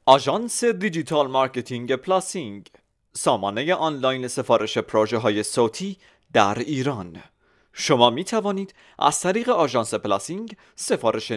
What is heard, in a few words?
narrator